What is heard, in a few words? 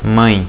mother, portuguese